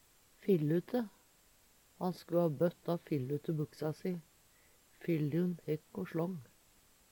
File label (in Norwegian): fillute, Numedalsmål